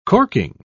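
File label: "corking", British English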